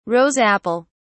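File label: "rose apple", British English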